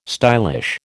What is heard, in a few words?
"stylish", American